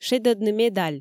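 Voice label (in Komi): "шедӧдны медаль", Komi, female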